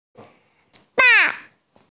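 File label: "ba4", Mandarin Chinese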